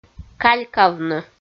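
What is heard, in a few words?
"калькавны", Komi, female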